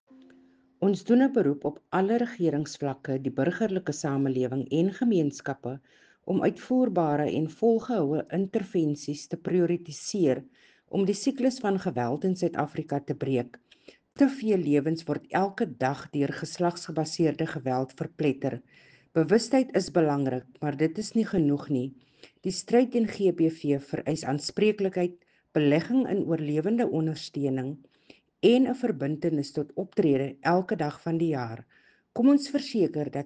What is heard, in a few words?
Message in Afrikaans